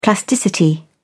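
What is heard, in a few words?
"plasticity", British English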